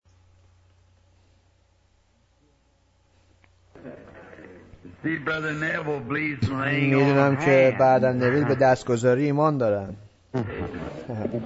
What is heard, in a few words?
Farsi Voice Over; What Was The Holy Ghost Given For